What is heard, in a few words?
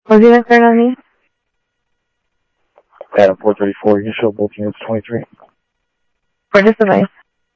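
Sheriff East County, scanner audio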